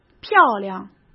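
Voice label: "漂亮", Mandarin Chinese; chinese flashcards; hsk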